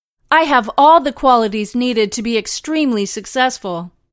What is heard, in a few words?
Female Qualities